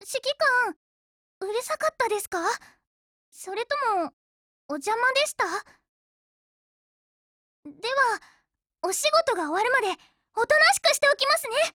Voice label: DIALOGUE WEDDING JP; C96